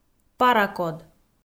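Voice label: "паракод", Komi, female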